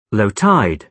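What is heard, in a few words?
"low tide", British English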